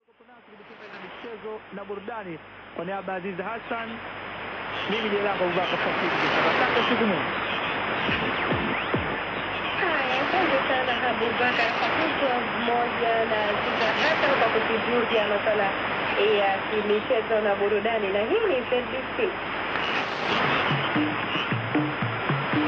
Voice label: 11735 kHz swahili-english; Zanzibar Broadcasting Corporation; IC R71E